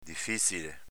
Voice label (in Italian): "difizile", dialetto veneto; difėzile avv. difficile